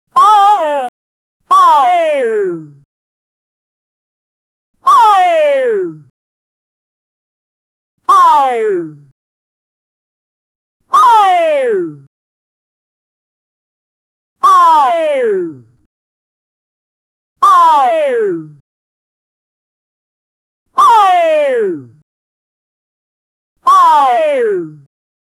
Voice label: cartoon voice saying uh oh